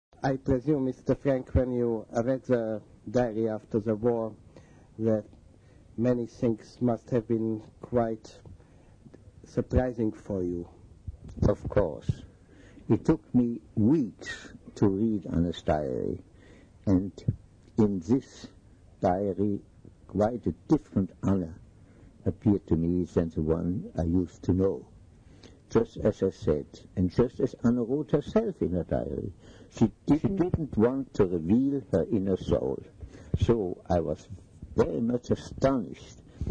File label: Conversation; Diary Anne Frank